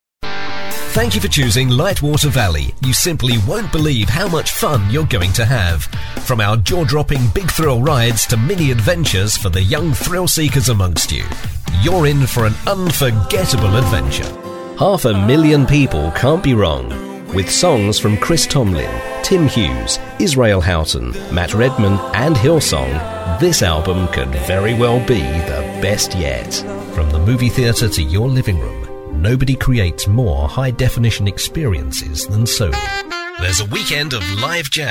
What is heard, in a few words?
English UK